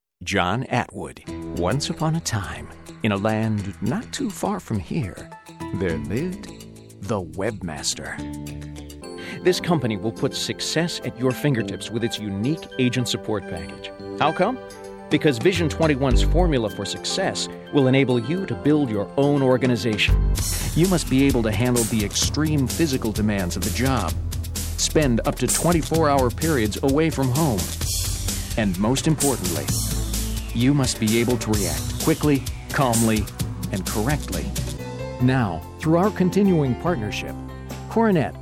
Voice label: narration